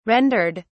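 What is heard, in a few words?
"rendered", English, British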